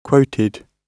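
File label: "quoted", British English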